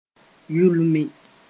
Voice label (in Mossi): "yulmi", moore